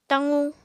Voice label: "taqu", Apatani

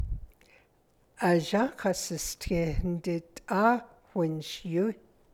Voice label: navajo verb mode; Even though I'm old I am still energetic

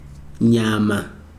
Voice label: "flesh", Chikunda

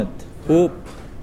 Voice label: "green coconut", Pohnpeian

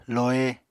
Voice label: "lohe", Apatani

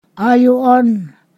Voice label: "there is", Ponosakan

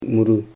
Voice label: "man", Koro

